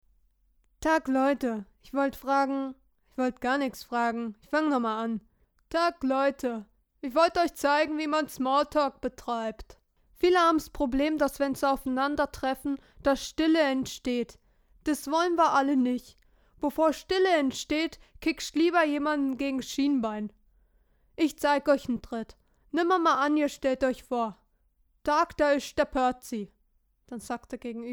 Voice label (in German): Monolog